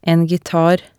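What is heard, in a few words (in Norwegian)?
"en gitar", Norwegian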